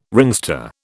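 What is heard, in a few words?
"ringster", British English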